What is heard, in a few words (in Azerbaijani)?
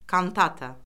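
"kantata", Azerbaijani; Orfoqrafiya Lüğəti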